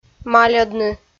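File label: "мальӧдны", Komi, female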